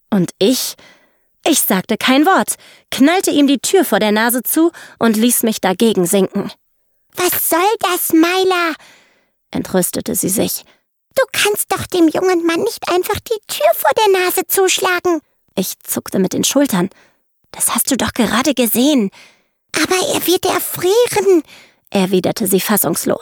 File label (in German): Horbuch; Schicksal des Winters